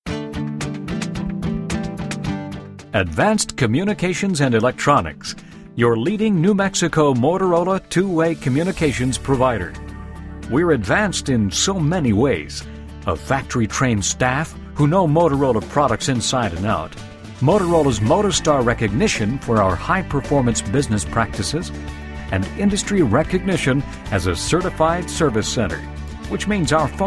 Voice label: On Hold Sample, on-hold messaging